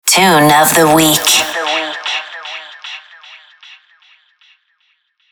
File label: sweeper tune of the week female; killerintrosnet